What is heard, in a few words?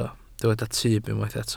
"tyb", Welsh